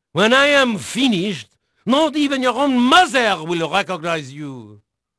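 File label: French male, voice; Pirates Of The Caribbean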